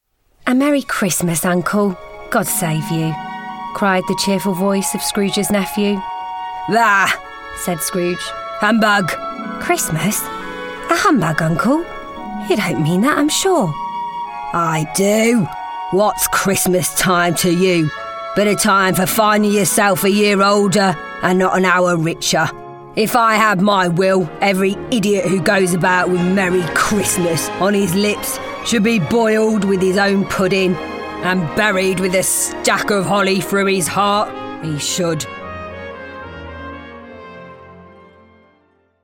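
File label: British English Narration; voice demos; GoLocalise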